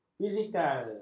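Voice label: "fizikal", Malay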